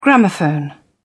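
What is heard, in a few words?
"gramophone", English, British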